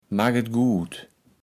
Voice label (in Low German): "maketgut", kaevels platt